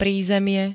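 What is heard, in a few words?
"prízemie", Slovak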